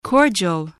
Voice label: "cordial", spelling